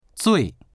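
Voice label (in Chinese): "zui4", Mandarin Chinese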